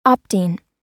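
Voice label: "opting", American English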